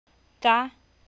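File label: "จ๊ะ", Thai, high tone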